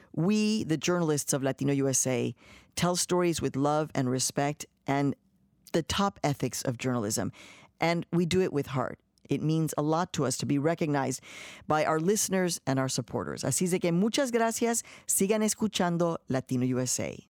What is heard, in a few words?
News Commentary; LatinoUSA; latin podcast awards